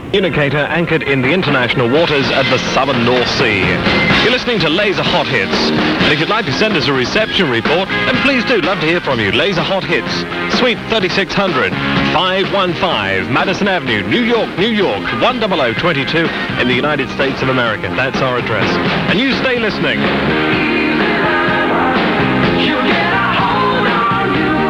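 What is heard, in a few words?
laser hot hits 576am announcement, radio; 1986; offshore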